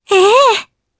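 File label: Vox Japanese; Laias; kingsraid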